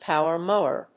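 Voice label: "power mower", English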